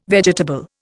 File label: "vegetable", Indian